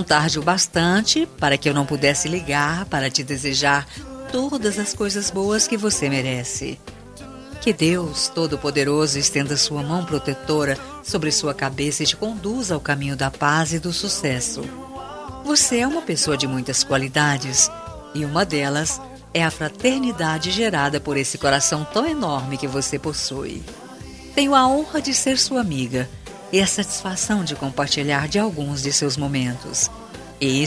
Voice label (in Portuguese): ANIV ATRASADO NEUTRO FEM; telemensagem aniversario atrasado geral neutro